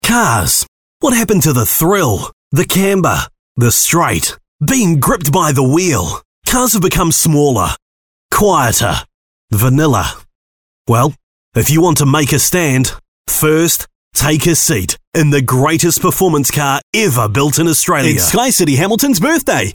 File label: NZ